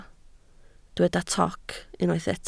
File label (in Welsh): "toc", Welsh